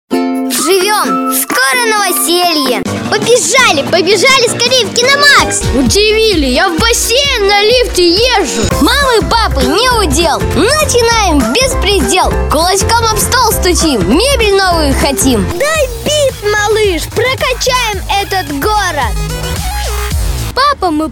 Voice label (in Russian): детский голос